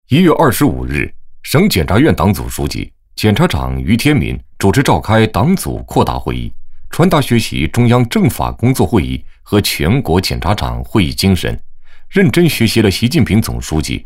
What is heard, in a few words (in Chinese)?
男 新闻 新闻播报